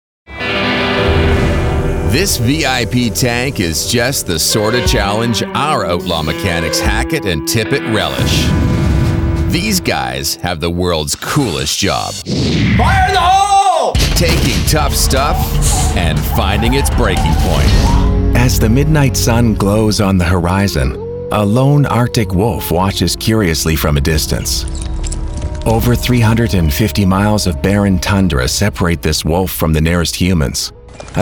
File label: Broadcast Narration